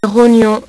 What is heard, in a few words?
"rognon", French; HyperSound™2